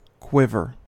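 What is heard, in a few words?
"quiver", US English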